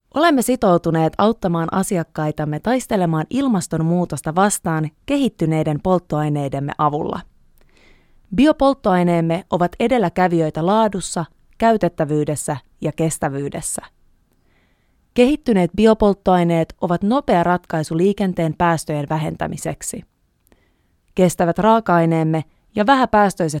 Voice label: Finnish; Scandinavian